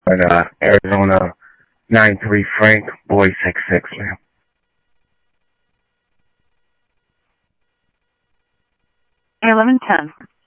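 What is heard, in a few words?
Sheriff Lake Patrol, scanner audio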